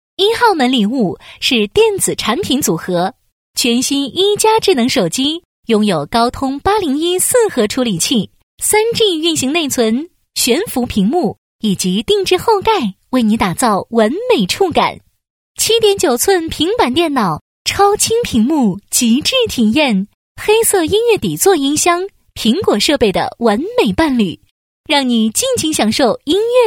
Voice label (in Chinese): 女, 栏目配音; 全是你的